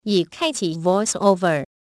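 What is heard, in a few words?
Taiwanese Chinese VoiceOver On; EFI Audio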